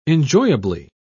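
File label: "enjoyably", British English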